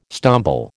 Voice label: "stumble", American